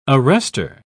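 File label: "arrestor", British English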